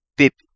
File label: Kapingamarangi; clam Shell